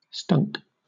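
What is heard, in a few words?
"stunk", British English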